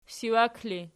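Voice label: siwak ergative, Archi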